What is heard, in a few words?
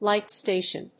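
"light station", English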